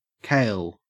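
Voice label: "kale", Australian English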